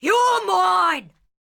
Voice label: Ranged Female Alert Line, Thug Spotted; NPC; Heist

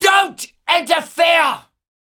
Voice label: Female Taunt, Cannibal, dialogue

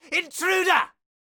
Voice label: Caster Elite Alert Line, dialogue

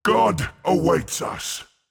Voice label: Mid Fight Taunts; Voll; NPC; Voll Combat

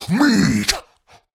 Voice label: Cannibal Male Taunt; Trunk Act One Monster

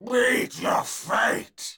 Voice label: Unarmed Elite Alert Line, dialogue

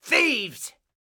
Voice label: Pirate Elite Male Alert Line; NPC; Heist Thug Spotted

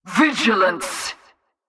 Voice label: Female Patrol Line; NPC; Heist Cultist Captain Patrol

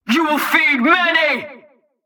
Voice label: Female Mid Combat Line; NPC; Heist Cultist Mid Combat

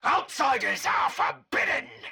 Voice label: Scientist Caster Chokepoint Line; NPC; Heist Cultist Chokepoint

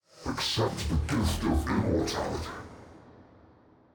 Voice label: Heal Stereo; Malachai

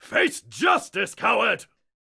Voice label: Melee Miniboss Mid Combat Line

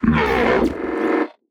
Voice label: Automaton Alert Line; NPC; Heist Robot Spotted

